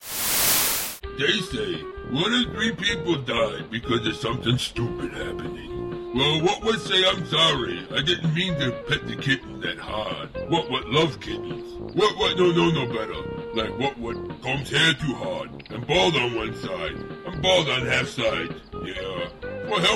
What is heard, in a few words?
PSA Message